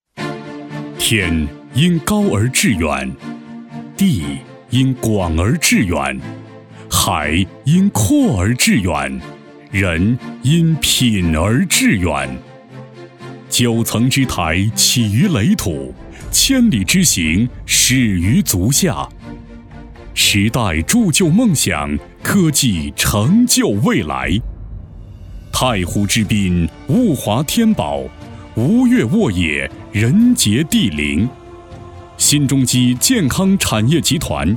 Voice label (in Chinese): 男 厚重大气 健康宣传片